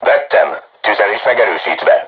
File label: voice message art shoot, hungarian; crew tanks